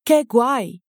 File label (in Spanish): que guay, Spanish